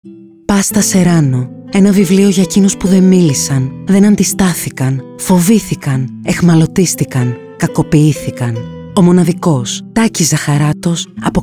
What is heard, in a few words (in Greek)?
Radio Spot Πάστα Σεράνο